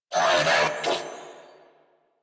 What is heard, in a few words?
Attack Grineer Male; New War; Narmer